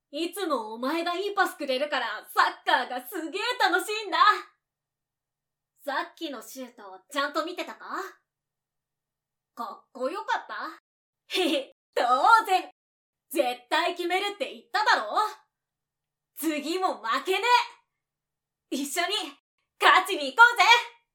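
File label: soccer boy, Japanese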